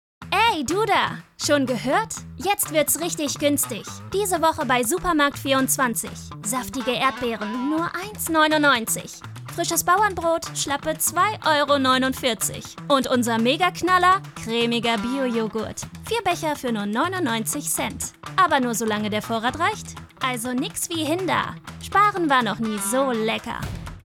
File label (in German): Werbung Supermarkt; "Supermarkt24" - Werbung; German